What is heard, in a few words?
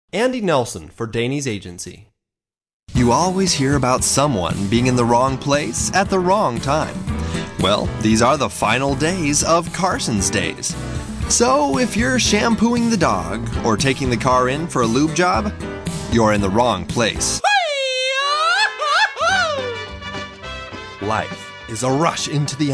Commercial, Male voices